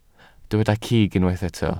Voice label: "cig", welsh